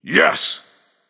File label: emote yes; smite; vulcan